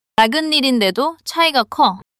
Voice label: neutral